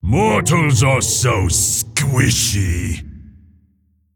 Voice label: Male Mogu Play

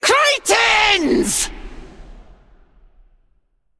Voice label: Female Old God Creature Attack

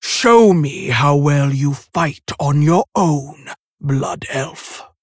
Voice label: Female Tauren

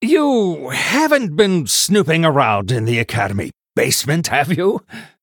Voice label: Male Human Flavor

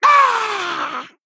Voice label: Male Kobold Death

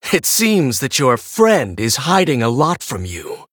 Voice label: Male Human Idle; Daerion; Valeera

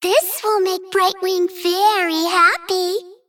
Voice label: Female Dragon Bark

